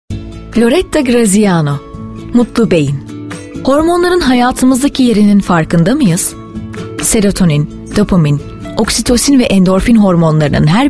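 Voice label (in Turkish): kitap, kitap seslendirme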